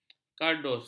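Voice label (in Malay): "kardus", Malay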